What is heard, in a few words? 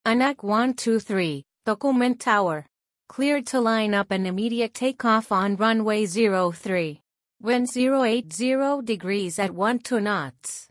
ATC Belly landing